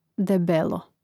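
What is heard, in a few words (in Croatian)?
"debelo", Hrvatski; mreznik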